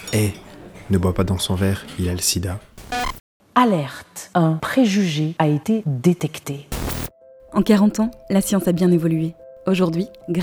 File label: Spot; campagne téléchargez les faits effacez les préjugés